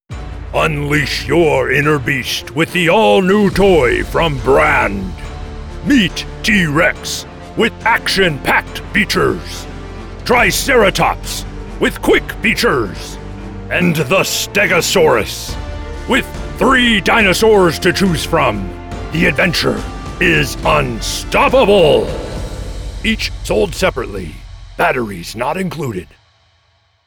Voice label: Voice Actor Voiceover Voice Over Animated Cartoon Character Childrens Toy Commercial Rough; Animated Cartoon Character Childrens Toy Commercial Rough Adult Mal